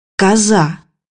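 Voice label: "goat", Russian; bukvar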